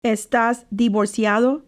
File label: Are you divorced male Estas divorciado